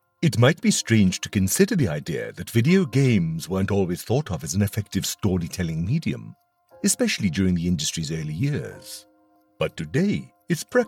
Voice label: Gamer Narrator Introduction

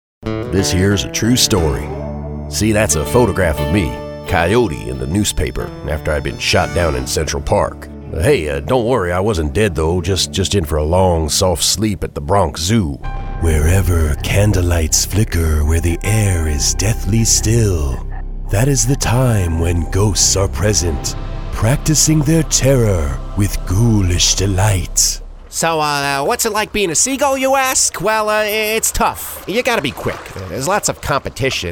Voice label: Character

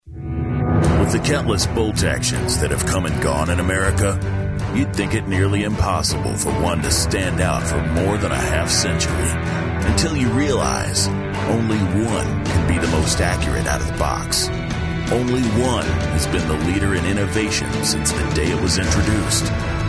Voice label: Outdoors

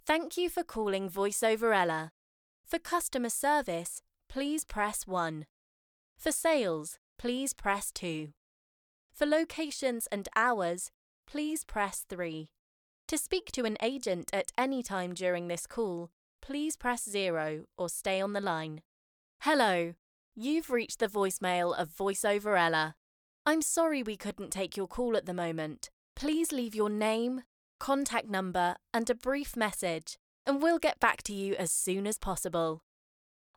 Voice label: IVR reel

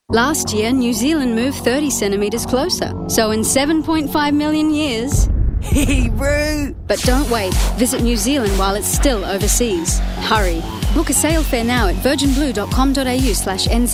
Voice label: Ad; Virgin Blue

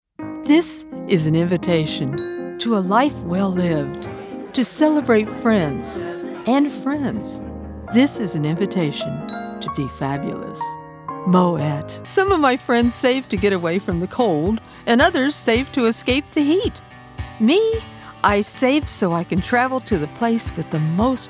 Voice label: Commercial

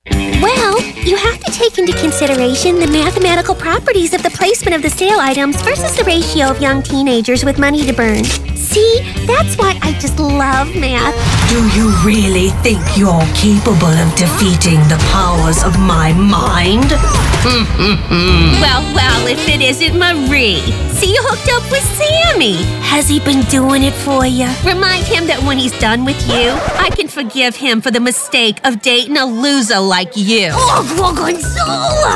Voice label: Animation

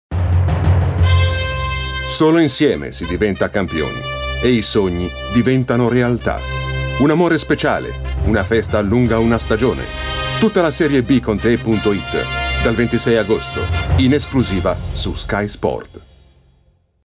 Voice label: Trailer; SerieB

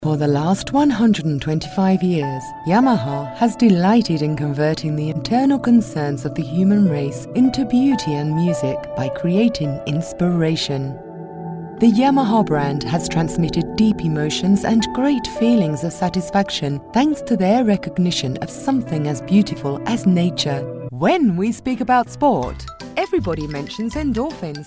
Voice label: CORPORATE MIX ENGLISH

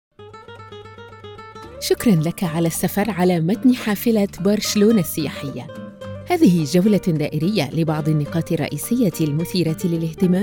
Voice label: Bus Touristic, Explainer; Barcelona Bus Touristic

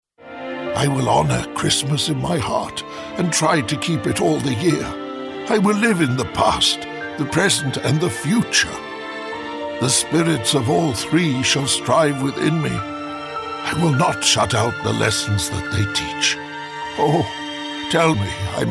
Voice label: serious; Scrooge

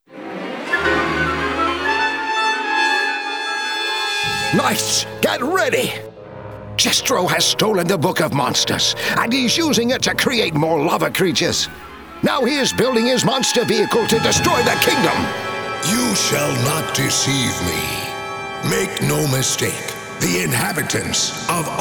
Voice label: Character Voices

